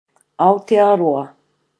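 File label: "aotearoa", Maori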